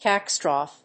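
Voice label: "catscratch", English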